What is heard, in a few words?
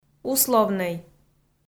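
"условнӧй", Komi, female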